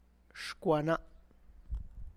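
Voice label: my hand's finger, Tlacochahuaya Zapotec; xhkuana'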